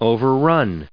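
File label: "overrun", English; verb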